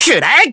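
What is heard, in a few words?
Voice attack, Japanese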